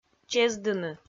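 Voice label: "чездыны", Komi, female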